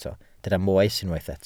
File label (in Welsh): "moes" Welsh